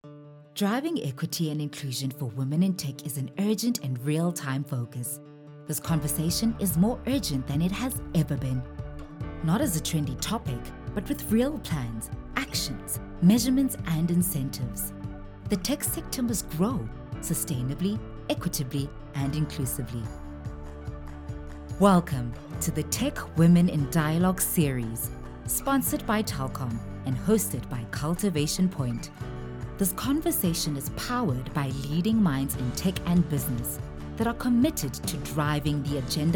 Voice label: English Corporate